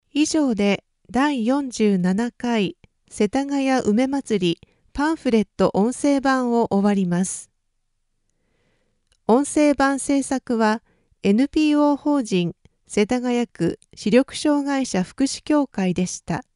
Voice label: flyer voices